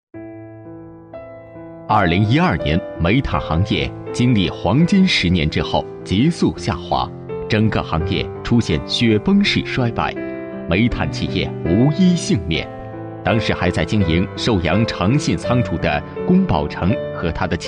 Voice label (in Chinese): 男 纪录片 人物专题